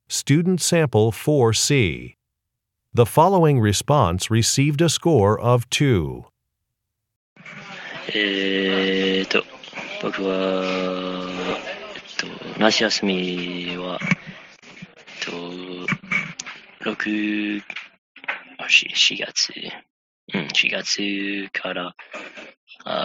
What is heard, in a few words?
Japanese conversation